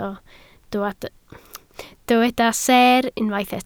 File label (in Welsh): "seer", Welsh